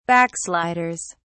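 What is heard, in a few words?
"backsliders", British English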